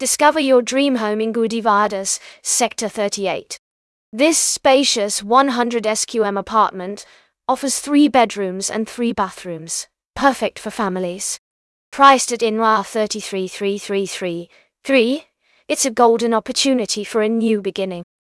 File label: narration audio